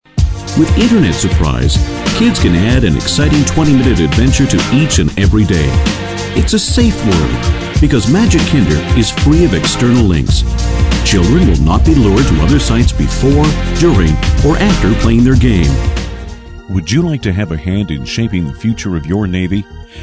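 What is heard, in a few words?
Narration Dialogue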